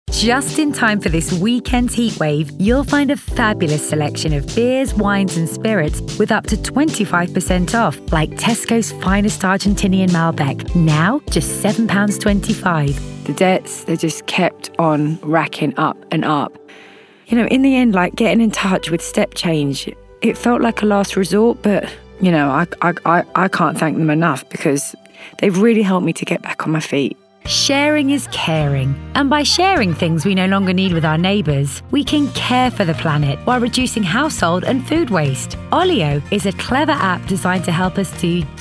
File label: Commercial Showreel